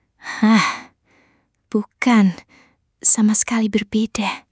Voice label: indonesian phrases

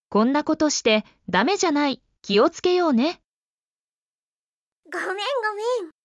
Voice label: Emotion Level: Still calm, but advising caution; Japanese; apology phrases; 「こんなことして…ダメじゃない？気をつけようね！（konnakotoshite…damejanai？kiwotsukeyone！）」「ごめんごめん（gomengomen）」

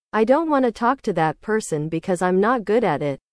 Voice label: english

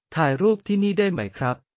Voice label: Thai, basic thai phrases

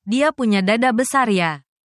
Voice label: indonesian phrases

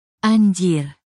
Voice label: indonesia, negative phrases

